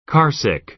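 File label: "carsick", American English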